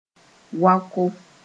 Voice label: "waoko", Mooré

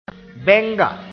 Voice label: "bɛnga", moore

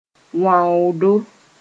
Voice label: "wãoodo", Mooré; leprosy

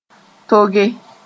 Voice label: "toge", moore

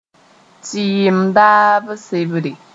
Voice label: "tɩɩm daab sebre", moore

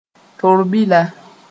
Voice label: "torbila", Mooré; pestle

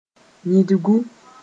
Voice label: "yĩdgu", moore

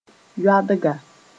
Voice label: "yoadga", Mooré

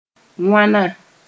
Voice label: "wãna", Mooré; how many

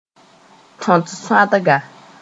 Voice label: "tõt-sõadga", moore

